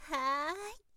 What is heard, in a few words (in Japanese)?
"hai", zyosei